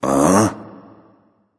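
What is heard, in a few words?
"seriously", male; baronsamedi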